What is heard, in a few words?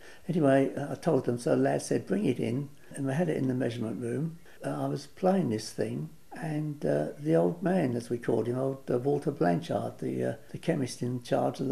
Violin, Oral History; Waltham Abbey Oral History; 1940s